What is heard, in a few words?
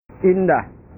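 "indah", Indonesian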